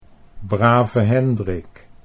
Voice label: "brave Hendrik", Dutch

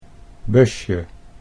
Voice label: "busje", Dutch

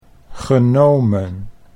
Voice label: "genomen", Dutch

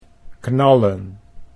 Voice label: "knallen", Dutch

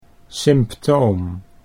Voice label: "symptoom", Dutch

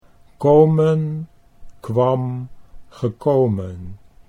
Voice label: komen kwam gekomen, Dutch

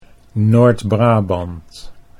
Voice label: Noord Brabant, Dutch

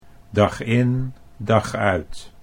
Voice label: "dag in dag uit", Dutch

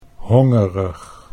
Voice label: "hongerig", Dutch; 'hungry'

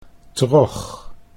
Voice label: "trog", Dutch

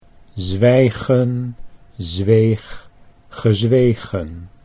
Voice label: zwijgen zweeg gezwegen, Dutch

